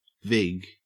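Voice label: "vig", Australian English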